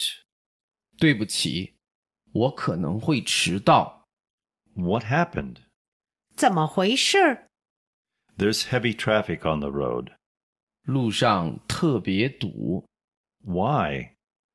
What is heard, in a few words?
Dialog